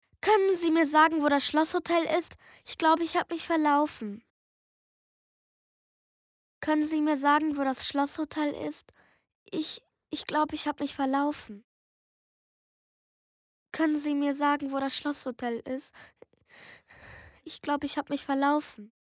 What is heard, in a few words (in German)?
Hörspiel; Weg zum schlosshotel